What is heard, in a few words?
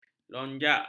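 "lonjak", Malay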